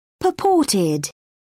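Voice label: "purported", British English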